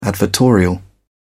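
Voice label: "advertorial", British English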